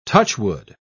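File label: "touchwood", English, British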